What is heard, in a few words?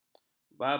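"babut", Malay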